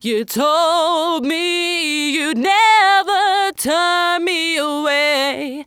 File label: Pitch Corr Auto Correct